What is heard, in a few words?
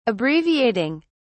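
"abbreviating", British English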